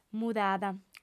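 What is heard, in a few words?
"mudada" Sardinian, female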